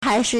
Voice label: "hai2shi4", Chinese